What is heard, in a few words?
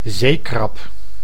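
"zeekrab", Dutch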